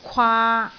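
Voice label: "kwaa3", Cantonese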